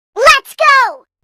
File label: Lets Go Notification Tone; Pubg